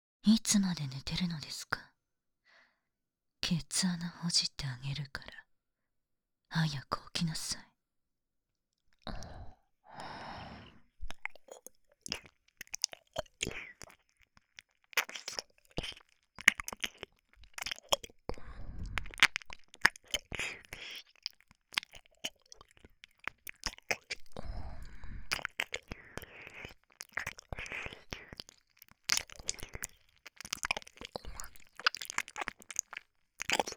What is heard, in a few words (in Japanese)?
アラーム 妹